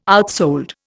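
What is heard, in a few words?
"outsold", Indian English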